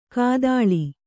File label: "ಘಾತಾಳಿ", Kannada